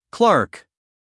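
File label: "clerk", American English, male; everyone-can-use-english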